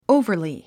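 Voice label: "overly", English word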